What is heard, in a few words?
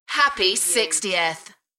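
UK female, DJ vocals; Happy 60th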